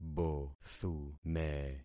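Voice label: "bothume", concatenated syllables; Affixing experiment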